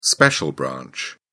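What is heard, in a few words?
"special branch", British English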